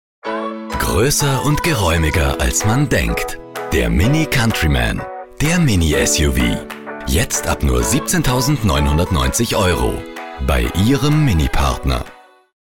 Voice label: Werbung freundlich; Mini

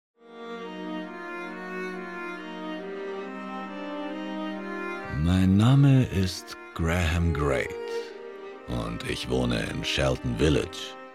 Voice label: Hoerspiel alter Erzaehler; Shelton Village; Graham Great

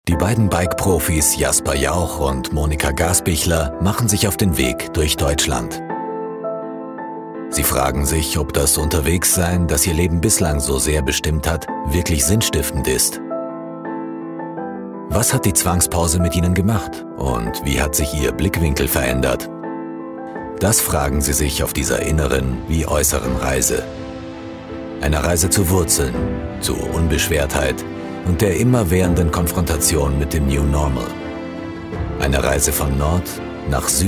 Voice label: Doku Off natuerlich; Bike

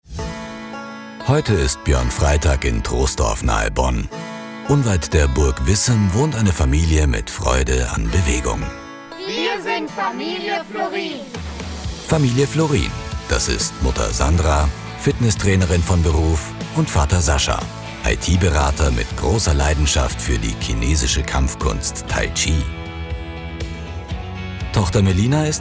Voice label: Dokutainment locker; Viel Fuer Wenig

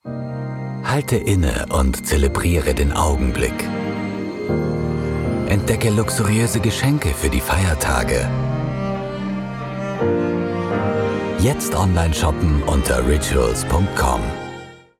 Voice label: Werbung besinnlich; Rituals

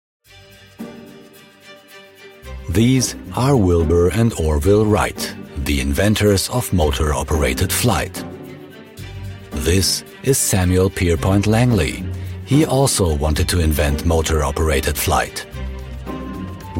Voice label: Englisch Audioguide; Wright Brothers

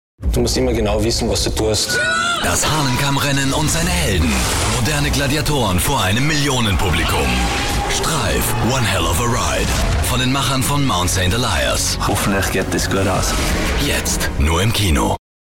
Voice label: Trailer hart; Streif

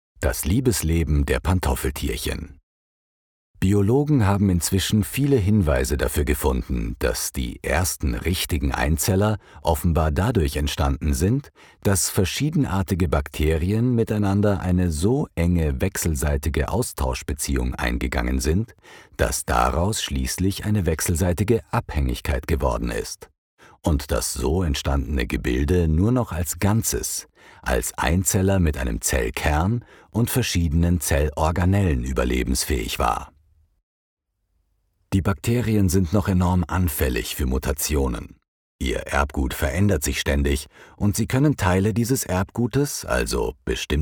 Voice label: Hoerbuch Sachbuch; Pantoffeltierchen

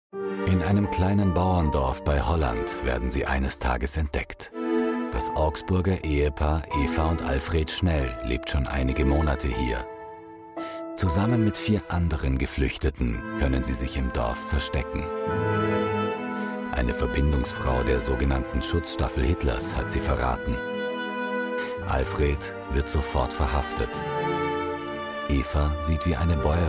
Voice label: Doku Off historisch; Stille Schreit

